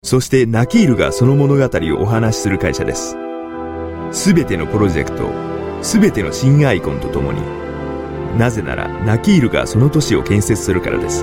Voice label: jp informative

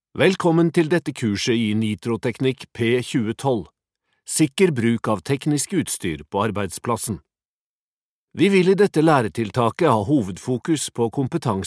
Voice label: no elearning

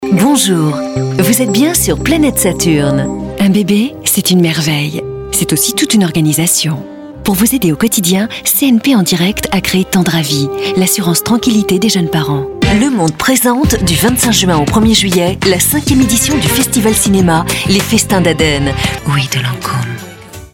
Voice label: fr commercial

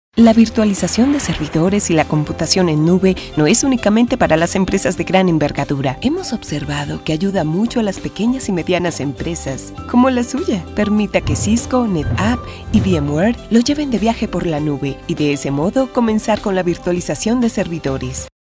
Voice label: spanish latin colombian corporate

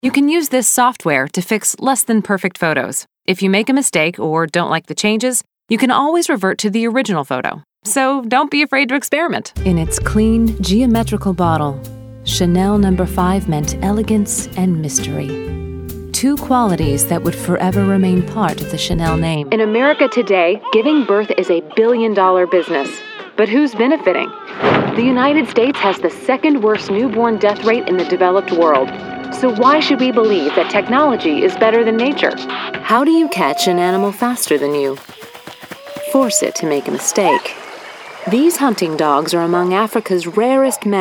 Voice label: us narration; engelsk-us; kvinne

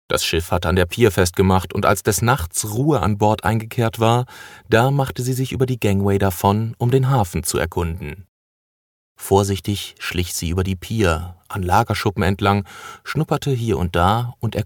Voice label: de audiobook

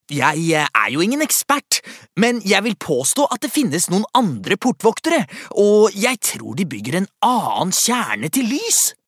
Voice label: no dub character

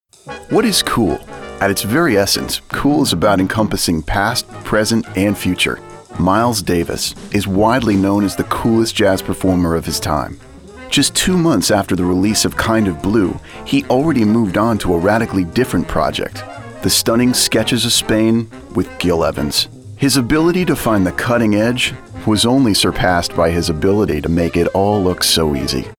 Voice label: us documentary

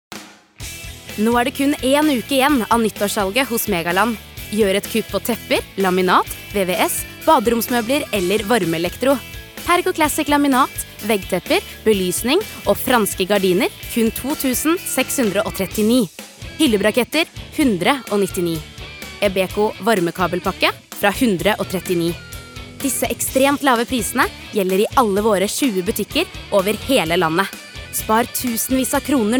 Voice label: no hard sell

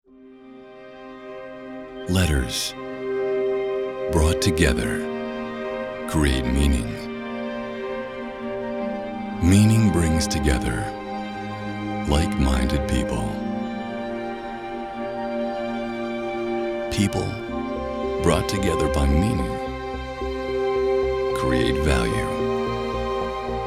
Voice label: US soft

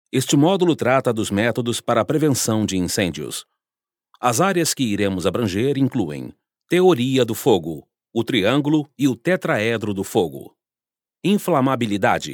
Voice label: br portuguese elearning

